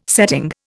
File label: "setting", Indian